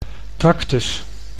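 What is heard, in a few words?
"tractus", Dutch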